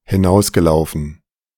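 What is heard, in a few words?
"hinausgelaufen", German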